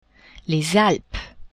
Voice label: "Alpes", French; geographie

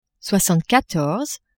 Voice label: "soixante quatorze", French; nombres

